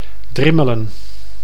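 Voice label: "Drimmelen", Dutch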